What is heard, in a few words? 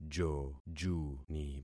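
"jojuni", concatenated syllables; Affixing experiment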